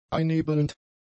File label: "einnebelnd", German; adjectives